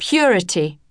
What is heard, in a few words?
"purity", English